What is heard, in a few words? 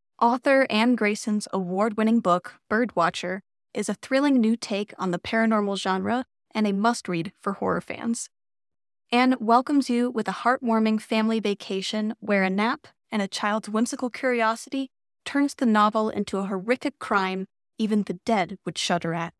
radio spot; Birdwatcher